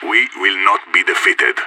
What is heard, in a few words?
scared emote